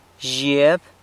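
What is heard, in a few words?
"zhieb", goat, San Lucas Quiaviní Zapotec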